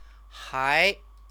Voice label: "hai", Chinese